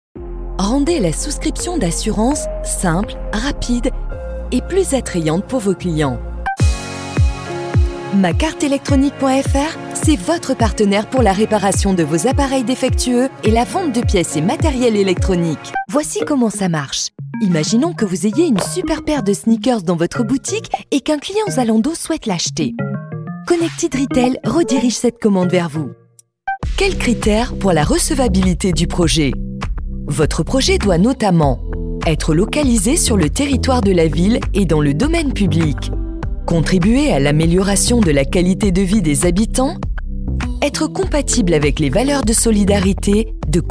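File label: Showreel Corporate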